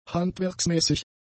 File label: "handwerksmäßig", German; adjectives